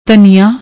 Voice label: "dhaniaa", Punjabi; ਧਨੀਆ

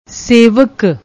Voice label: "sewak", Punjabi; ਸੇਵਕ

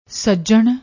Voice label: "sajnn", Punjabi

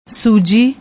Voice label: "suji", Punjabi; ਸੂਜੀ

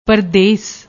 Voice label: "pardes", Punjabi

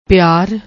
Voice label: "piaar", Punjabi

